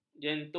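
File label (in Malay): "jentu", Malay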